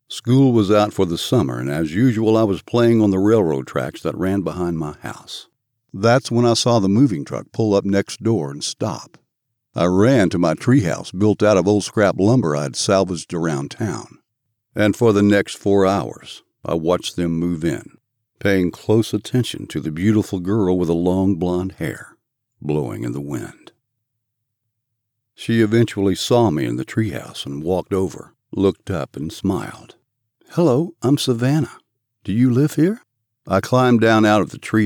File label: 1st person M F Dialogue